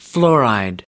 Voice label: "fluoride", us